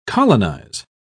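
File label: "colonise", British English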